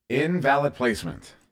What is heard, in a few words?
Invalid Placement, CTF Announcer